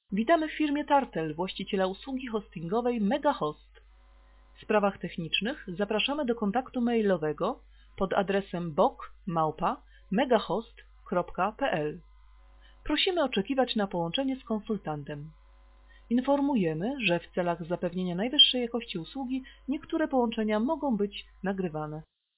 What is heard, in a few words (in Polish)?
glos kobiecy; wirtualna centrala